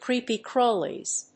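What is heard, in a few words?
"creepy-crawlies", English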